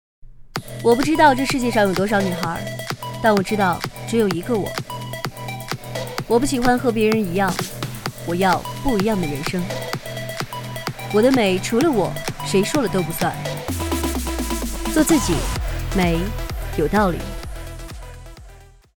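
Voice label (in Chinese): 广告冷酷个性; 屈臣氏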